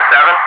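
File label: "7", English speech, Wingman Digits; DCS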